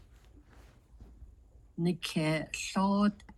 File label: You have sores on your foot, Navajo; navajo clauses